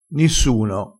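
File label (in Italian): "nissuno", dialettale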